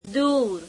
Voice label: "far from", gujarati; દૂર; deūr

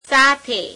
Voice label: "with", gujarati; સાથે; seāthe

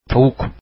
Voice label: turkish chicken

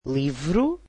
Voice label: "book", Portuguese

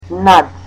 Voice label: "above", Macedonian

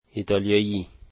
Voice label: "Italian", dari

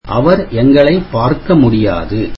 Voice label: tamil he cannot see us

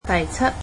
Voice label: "seventh", cantonese

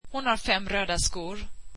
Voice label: swedish she has five red shoes

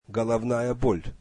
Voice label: russian headache

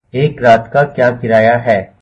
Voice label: What is the charge per night, hindi

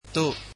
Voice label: "to", Burmese

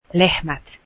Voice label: cows, Finnish

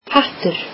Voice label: "hat", Icelandic

